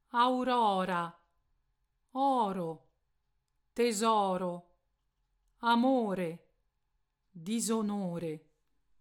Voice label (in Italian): R monovibrante